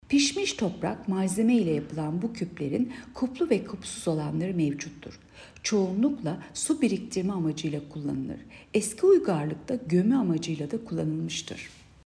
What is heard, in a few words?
turkce